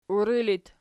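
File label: "uiri" locative, Archi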